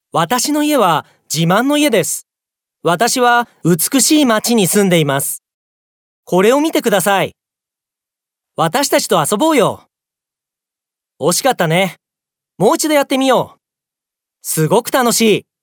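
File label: Japanese male children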